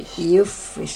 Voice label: "yufish", karuk